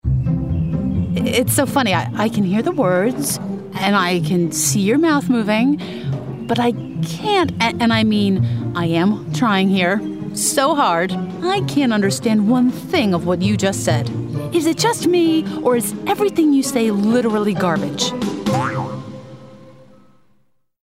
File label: ANIMATION Natural Voice